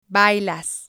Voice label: "bailas", spanish